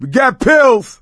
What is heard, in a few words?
spot pills; Left 4 Dead 2; coach